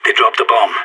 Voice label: bomb down, radio; SAS

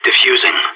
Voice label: defusing bomb, radio; SAS

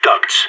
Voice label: ducts, radio; SAS

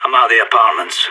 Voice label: apartments, radio; SAS

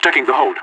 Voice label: checking hall, radio; LEET

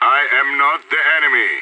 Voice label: friendly fire, radio; SAS

